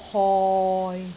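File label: "hoi6", Cantonese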